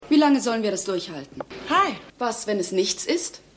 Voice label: Frau

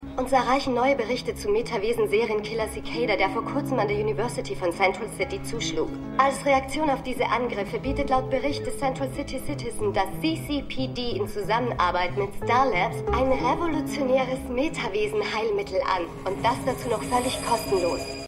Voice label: Nachrichtensprecherin; The Flash

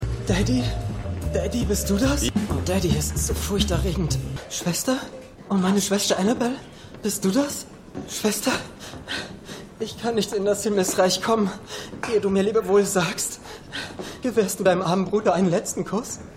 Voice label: Verwundeter Soldat; Stimmproben

